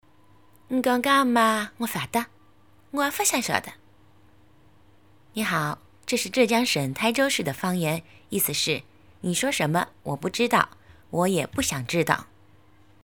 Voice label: 浙江省台州市方言

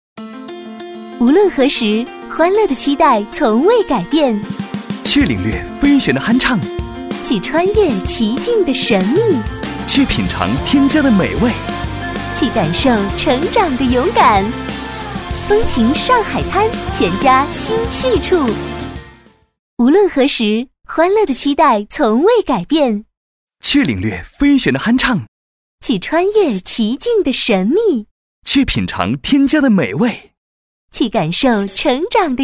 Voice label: 年轻广告对播

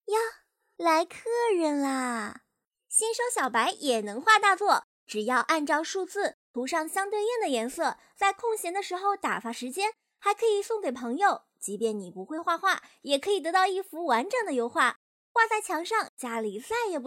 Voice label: 卖货

